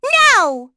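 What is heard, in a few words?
Vox Deny, English; Hanus; kingsraid; voices heroes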